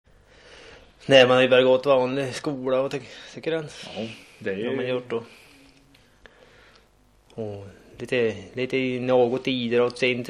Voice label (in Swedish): Häggenås, dialekter; Svenska sagor; Folkminnen